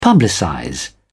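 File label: "publicize", British English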